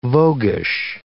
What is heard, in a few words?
"voguish", English